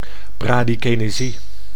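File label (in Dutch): "bradykinesie", Dutch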